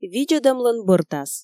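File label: Komi, female; видзӧдӧмлӧн бӧртас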